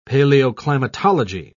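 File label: "paleoclimatology", British English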